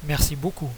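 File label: "thank you much", French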